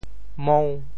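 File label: "mou5", Teochew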